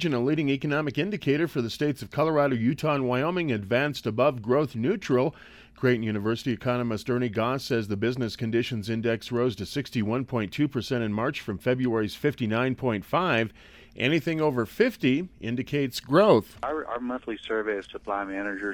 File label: radio news; Wyoming